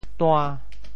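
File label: "tua~5", Teochew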